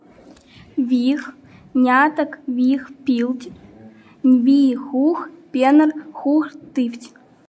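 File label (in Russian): НИВХСКИЙ; Нос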